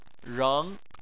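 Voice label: "reng", Chinese, tone 2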